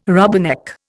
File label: "rubberneck", Indian